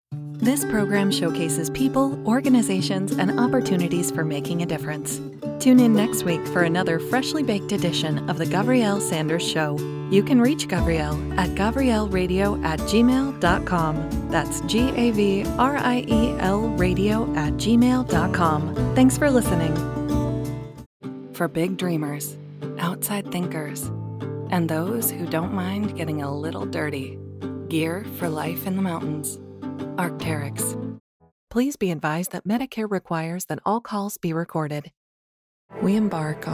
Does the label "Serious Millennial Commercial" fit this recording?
Yes